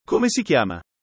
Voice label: chiedere formale, italiano

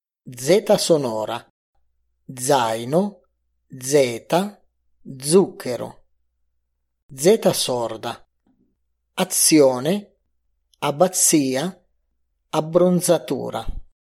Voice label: fonetica suoni Z

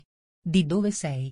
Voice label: domanda informale

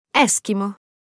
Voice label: "ESKIMO", Italian

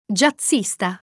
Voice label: "JAZZISTA", Italian

